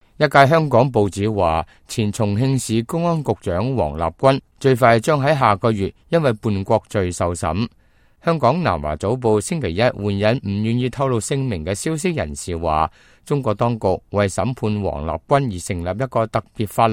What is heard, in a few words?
cantonese, news